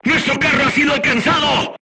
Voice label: voice message player tank hit, crew tanks spanish